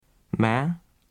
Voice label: "ma" unmarked, vietnamese

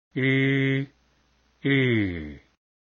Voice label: u curl i stroke, vietnamese vowels

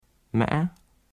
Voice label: ma high broken, vietnamese

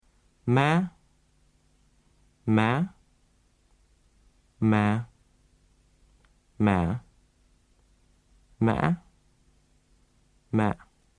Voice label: ma six ways, vietnamese

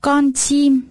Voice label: "con chim", vietnamese

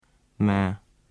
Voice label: ma low falling, vietnamese

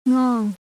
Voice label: "ngon", vietnamese

